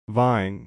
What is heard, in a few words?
"vine", English